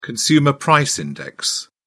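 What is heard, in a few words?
"consumer price index", British English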